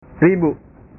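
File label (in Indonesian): "ribu", Indonesian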